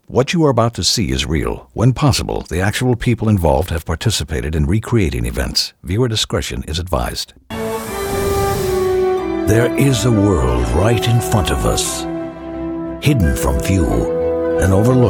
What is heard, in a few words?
Trailers